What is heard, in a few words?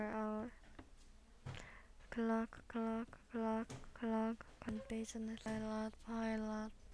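"clock", spoken word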